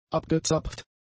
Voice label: "abgezapft", German; adjectives

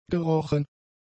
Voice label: "gerochen", German; adjectives

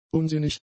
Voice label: "unsinnig", German; adjectives

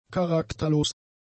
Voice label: "charakterlos", German; adjectives

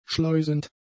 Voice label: "schleusend", German; adjectives

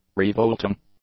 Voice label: "revolting", English; adjectives

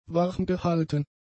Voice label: "warmgehalten", German; adjectives

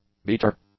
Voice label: "beater", English; nouns

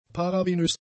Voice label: "paravenös", German; adjectives

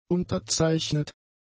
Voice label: "unterzeichnet", German; adjectives

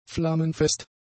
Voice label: "flammenfest", German; adjectives

